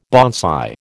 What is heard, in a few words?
"bonsai", American